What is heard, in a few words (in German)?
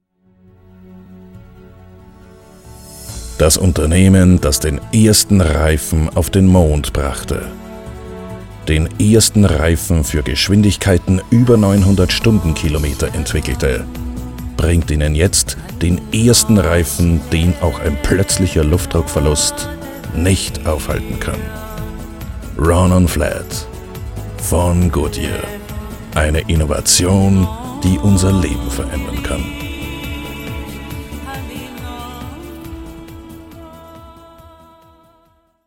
Werbung; GoodYear